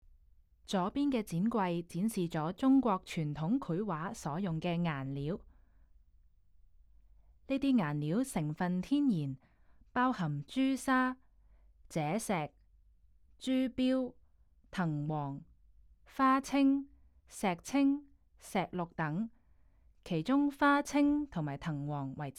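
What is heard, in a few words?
Cantonese, audio guide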